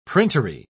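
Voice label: "printery", British English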